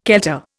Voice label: "kelter", Indian